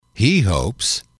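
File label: He hopes, male, English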